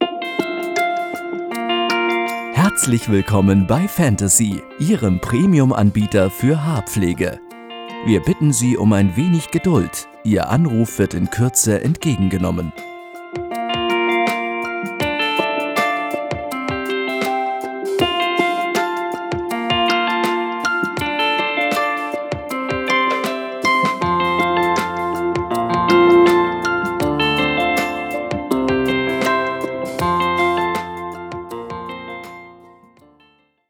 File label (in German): warteschleife; Ansagen